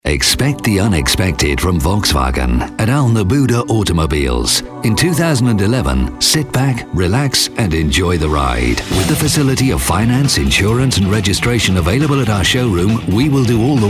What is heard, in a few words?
radio spot; Al Naboodah; VW